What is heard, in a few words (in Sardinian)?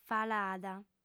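"falada", female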